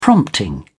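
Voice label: "prompting", British English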